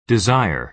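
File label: "desire", American English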